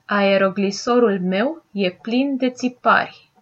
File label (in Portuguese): hovercraft, romeno